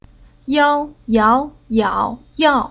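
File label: "Yao", chinese syllable; Уроки фонетического блока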